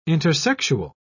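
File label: "intersexual", British English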